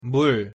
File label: "물", Korean; pronunciation